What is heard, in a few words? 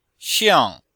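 "xiang", Chinese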